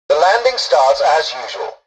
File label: tutorial dialogue, english; War Thunder